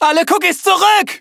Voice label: defeat, de; Cookie Run